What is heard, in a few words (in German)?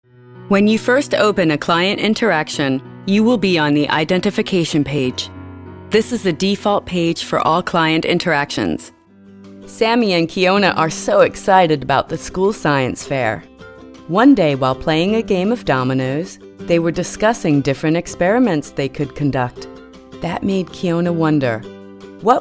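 US English, Kommentar, Neutral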